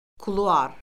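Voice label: "kuluar", Azerbaijani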